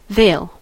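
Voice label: "they'll", American English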